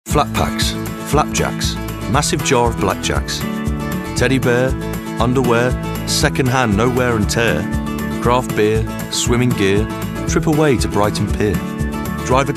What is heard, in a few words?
COMMERCIAL; eBay